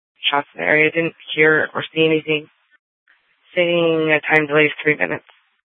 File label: Sheriff, scanner